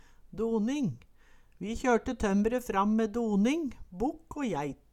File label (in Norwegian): "doning", Numedalsmål